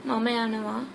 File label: goodbye, sinhala